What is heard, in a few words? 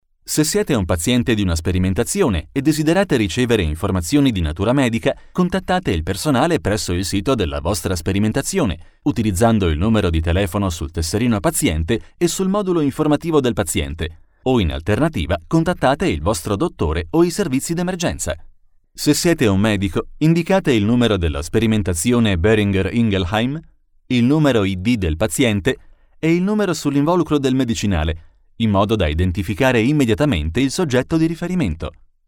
Telephone on-hold message